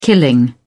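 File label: "killing", British English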